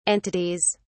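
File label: "entities", English, British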